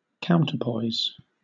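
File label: "counterpoise", British English; pronunciations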